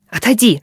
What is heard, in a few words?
back off, characters voice human woman threat; Stalker Anomaly